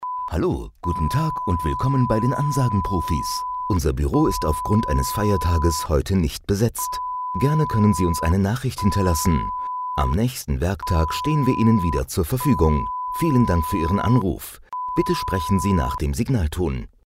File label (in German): Sprecher piep Ansage